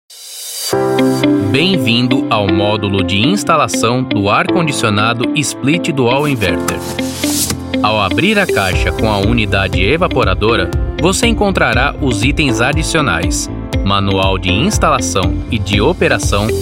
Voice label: e-learning